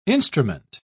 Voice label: "instrument", pronounce